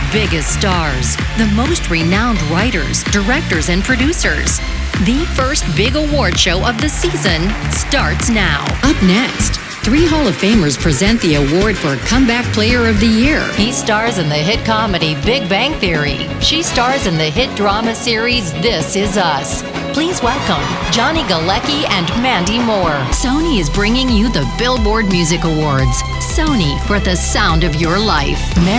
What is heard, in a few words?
Live Announce